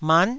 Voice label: "mat9", Taiwanese syllable